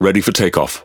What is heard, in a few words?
Pirate Speech Pilots Fighter Ready; World Of Warships